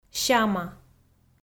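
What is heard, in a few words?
"сяма", Komi, female; kpv audio dict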